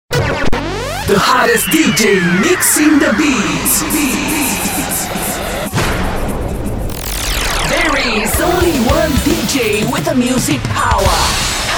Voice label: dj en